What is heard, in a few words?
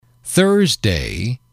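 "thursday", English, male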